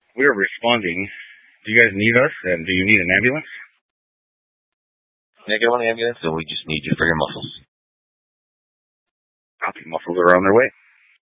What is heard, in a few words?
Fire Departments Mesa Simulcast; TOPAZ